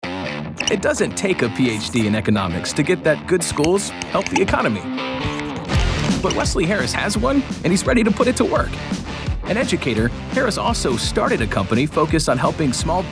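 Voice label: political, Voiceover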